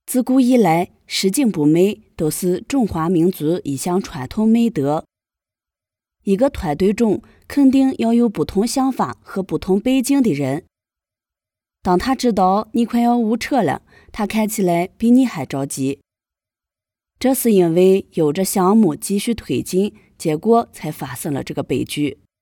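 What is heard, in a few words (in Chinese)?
甘肃 女 甘肃方言 样音 女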